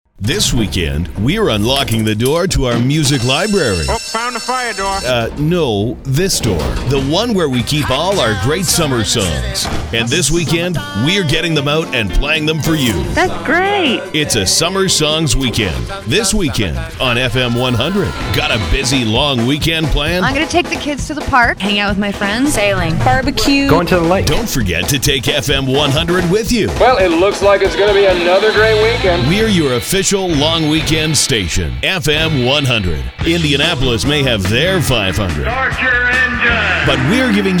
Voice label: classic hits imaging

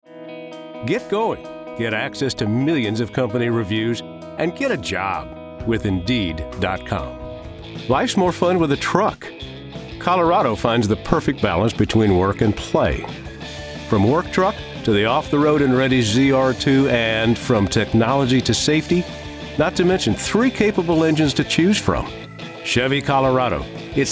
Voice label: Voiceover

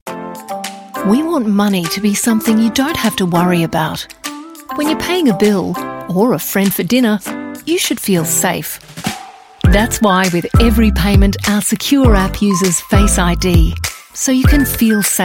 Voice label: Compilation Reel, female voice

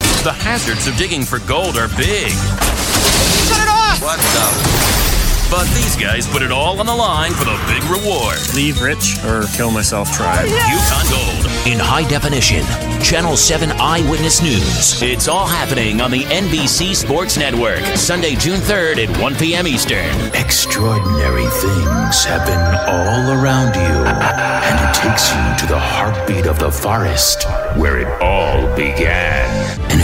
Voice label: TV Promo

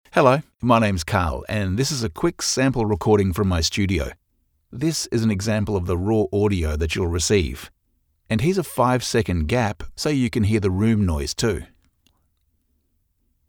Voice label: ROOM NOISE

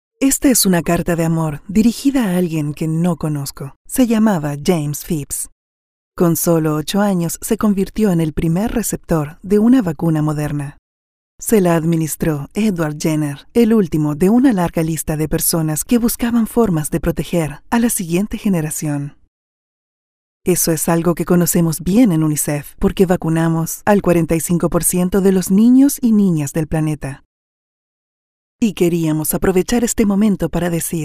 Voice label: PROMOCION ONG, Latin Spanish Neutral Female

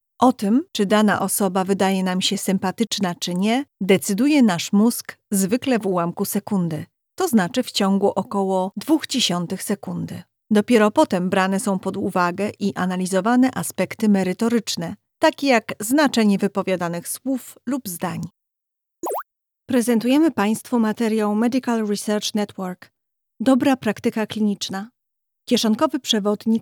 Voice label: POL eLearning

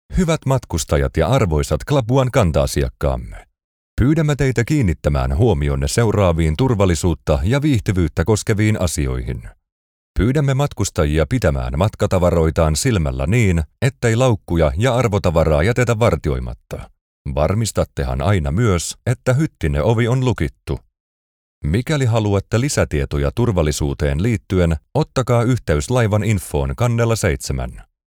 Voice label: Public Announcements

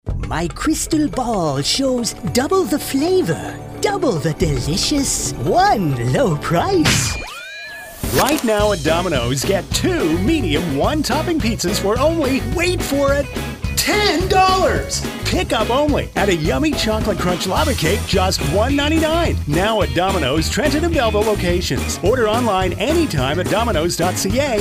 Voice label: fortune teller and announcer; Dominos Pizza

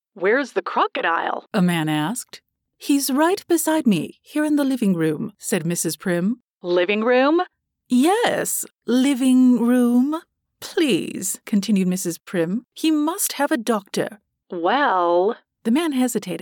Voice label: CHILDREN S AUDIOBOOK

